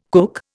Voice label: "kook", Indian

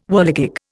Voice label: "whirligig", Indian

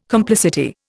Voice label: "complicity", Indian

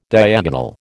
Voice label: "diagonal", American

